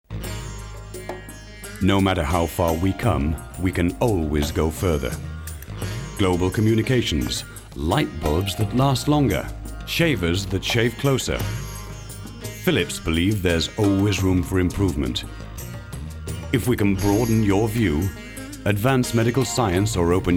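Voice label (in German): British englisch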